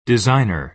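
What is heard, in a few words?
"designer", American English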